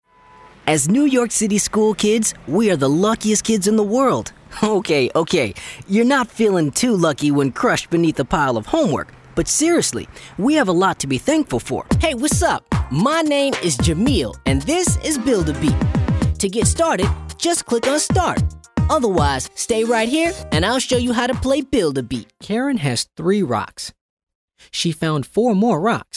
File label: narration